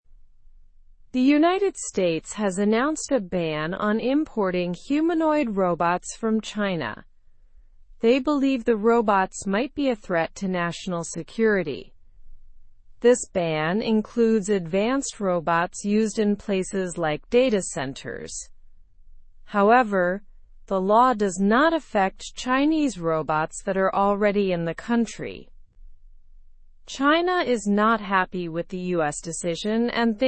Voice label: learn english through news lower intermediate slow; us bans new chinese humanoid robots